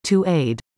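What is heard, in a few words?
"to aid", English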